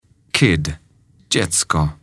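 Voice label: "kid", English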